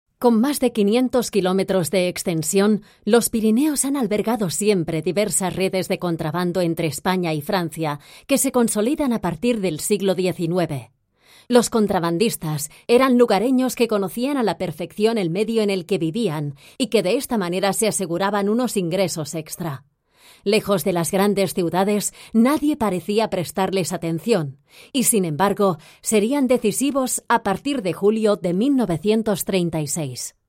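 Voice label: female, narration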